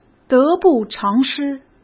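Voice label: "得不偿失", Mandarin Chinese; chinese flashcards; hsk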